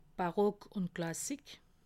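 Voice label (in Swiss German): "barock", Haut-Rhin; livre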